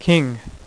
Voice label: "KING", dealer's speech